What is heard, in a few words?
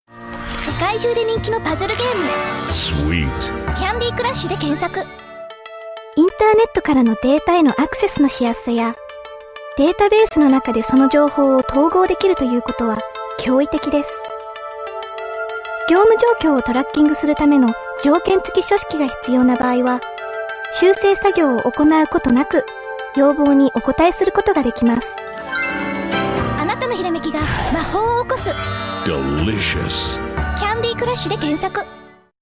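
Japanese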